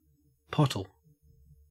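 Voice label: "pottle", Australian English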